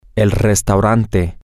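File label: restaurant, Spanish, male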